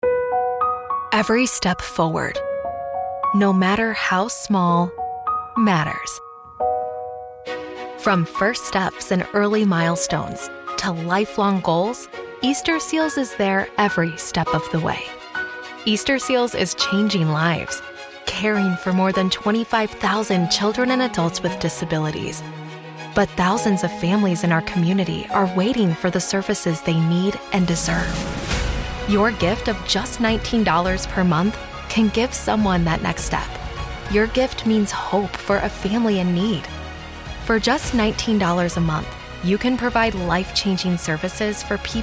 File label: commercials, en-NZ; easter-seals